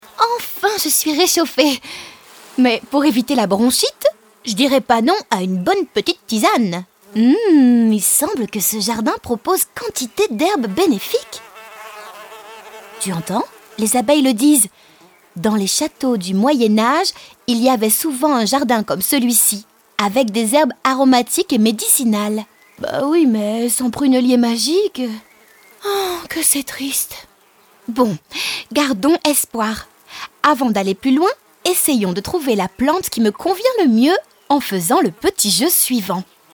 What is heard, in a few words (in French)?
AUDIO GUIDE ENFANT Voix jouee; Chateau